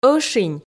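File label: "ӧшинь", Komi, female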